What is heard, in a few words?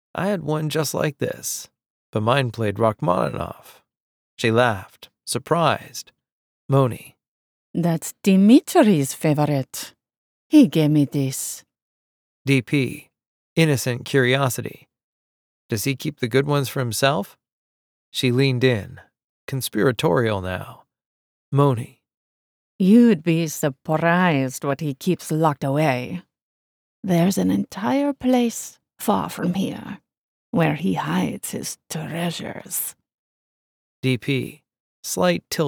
Dual Narrator Accent Sci-Fi Fiction VO